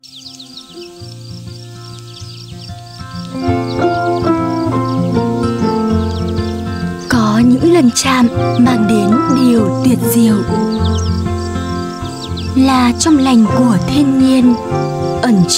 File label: North TVC Female, VIETNAMESE NORTH FEMALE VOICES; dệm EVERON